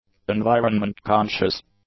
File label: "environment-conscious", English; adjectives